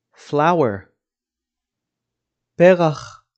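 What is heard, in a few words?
"flower" English, "פרח" Hebrew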